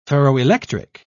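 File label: "ferroelectric", English, British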